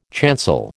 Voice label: "chancel", American

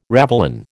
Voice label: "ravelin", American

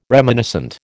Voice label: "reminiscent", American

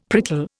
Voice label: "prickle", Indian; Dictionary

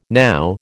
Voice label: "now", American

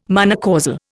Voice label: "monocausal", Indian

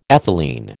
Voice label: "ethylene", American

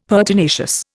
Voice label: "pertinacious", Indian; Dictionary

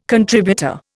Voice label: "contributor", Indian

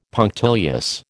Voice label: "punctilious", American

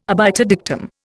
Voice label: "obiter dictum", Indian

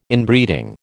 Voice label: "Inbreeding", American

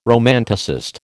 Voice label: "romanticist", American

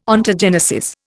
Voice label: "Ontogenesis", Indian

